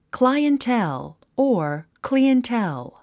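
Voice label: "clientele", English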